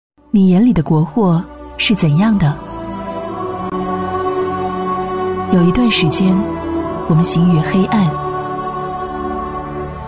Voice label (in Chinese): 女 专题配音 国货化妆品 自然温婉大气; 年轻舒缓